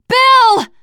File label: name, teen girl; bill